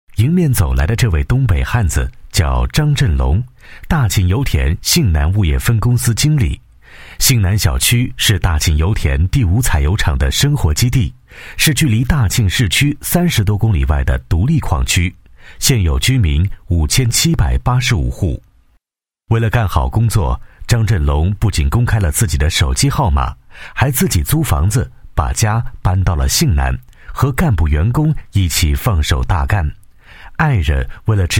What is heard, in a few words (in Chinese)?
男 人物 沉稳 大气 有感情, 国语男声配音; 心系百姓的好干部